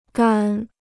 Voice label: "杆", Chinese